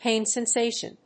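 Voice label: "pain sensation", English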